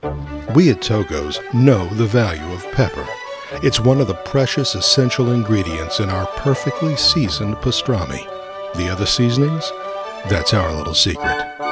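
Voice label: commercial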